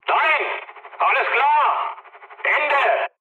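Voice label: German chat, speech; War Thunder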